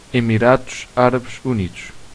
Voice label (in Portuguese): united arab emirates, pt; gcompris; geography